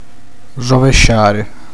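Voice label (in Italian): "vomitare", Italian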